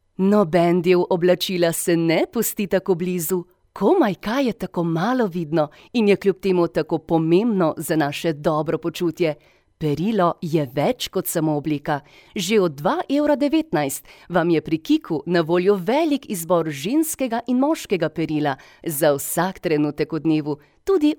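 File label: Slovenian, female, narration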